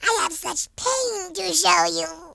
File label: hello, Horror